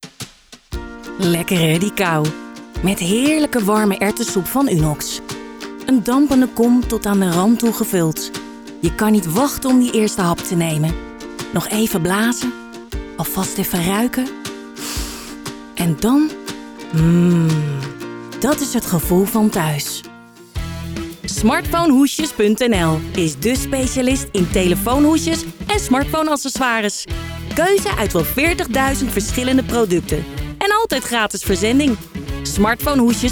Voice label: Voiceover commercial